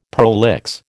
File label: "prolix", American